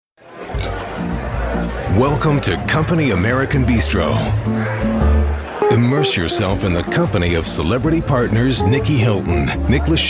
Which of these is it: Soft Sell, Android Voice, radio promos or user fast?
Soft Sell